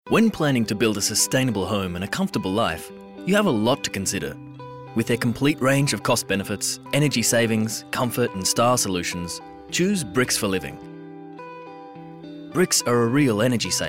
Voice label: Corporate Reel